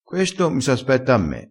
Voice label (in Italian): "aspetta", crespinese